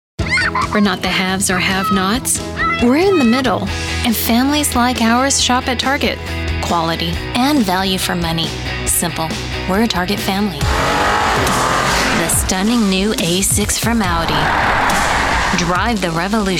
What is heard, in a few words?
Commercial